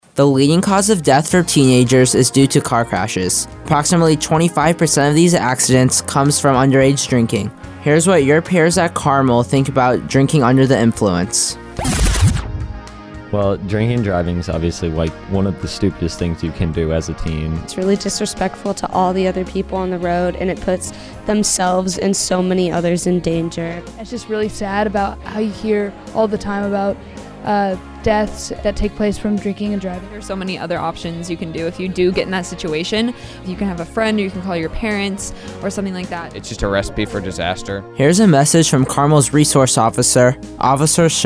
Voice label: PSA